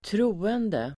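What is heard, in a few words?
"troende", Swedish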